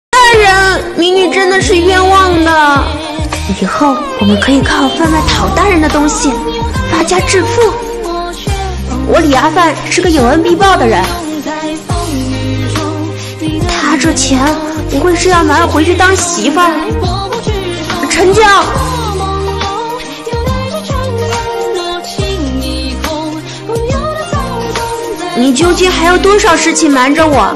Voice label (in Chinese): 呆萌 少女音 转换